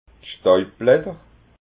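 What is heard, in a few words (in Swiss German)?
"stauibblatter", Alsatian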